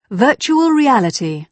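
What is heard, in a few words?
"virtual reality", British English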